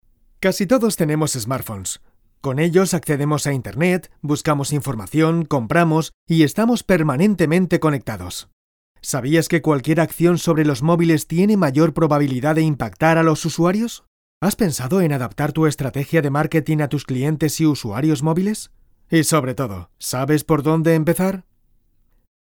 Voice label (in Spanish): narración